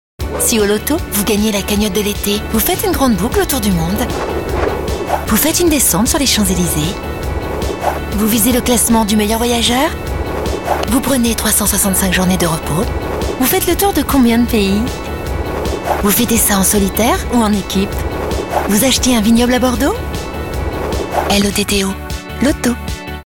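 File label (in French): questions douces invitantes, comédienne voix off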